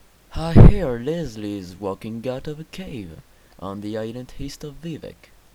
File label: audition, breton voice auditions; skywind; simine fralinie